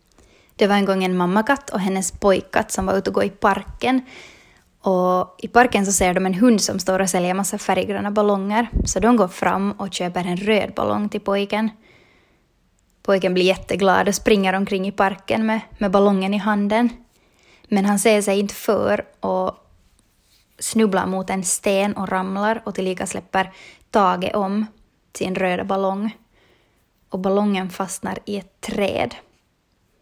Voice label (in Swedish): Kvinna Aboland